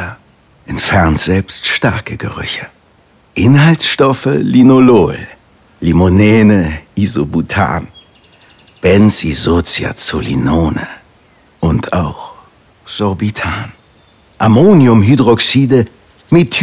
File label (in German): Lufterfrischer Funk; Audible